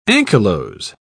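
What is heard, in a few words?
"anchylose", English, British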